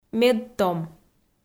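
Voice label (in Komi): "медтом", Komi, female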